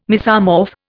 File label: "mesomorph", Indian